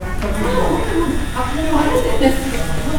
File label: contempt, エレベータホール, Contempt; 軽蔑; 感情プロファイルマッチング環境音